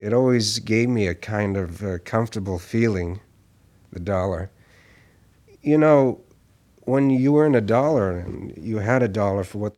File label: Announcer Process; Tony Schwartz Collection